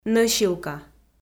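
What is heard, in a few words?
"нӧсилка", Komi, female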